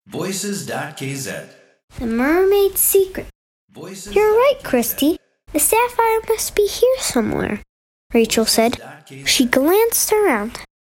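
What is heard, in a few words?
english kid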